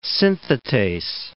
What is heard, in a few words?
"synthetase", English word